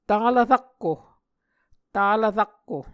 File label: "talv rakko", Muscogee; Onvkv Ohhvyayices; A Dictionary of Creek/Muskogee; SIL International